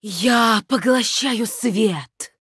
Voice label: Female Night Elf Play

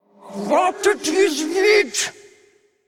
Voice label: Female Faceless Attack; Hearthstone; датамайн обновления 5.0

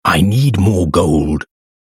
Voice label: Insufficient Funds, en US

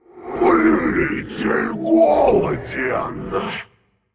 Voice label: Male Faceless Play; Hearthstone; датамайн обновления 5.0

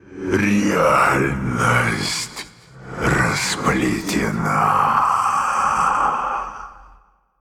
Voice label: Male Elemental Death; Hearthstone; датамайн обновления 5.0

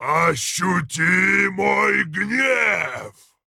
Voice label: Male Keeper Attack; Hearthstone; датамайн обновления 5.0

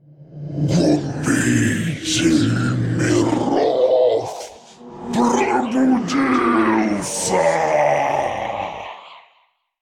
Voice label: Androgynous Monster Play; Hearthstone; датамайн обновления 5.0

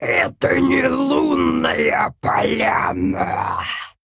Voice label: Female Bear Play

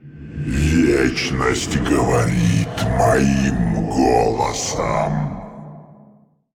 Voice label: Male Shade Play; Hearthstone; датамайн обновления 5.0

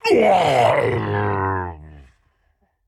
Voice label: Male Tauren Death; Hearthstone; датамайн обновления 5.0

